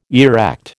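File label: "erect", American English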